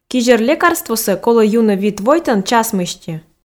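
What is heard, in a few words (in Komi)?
кизьӧр лекарствосӧ колӧ юны вит войтӧн час мысти, Komi, female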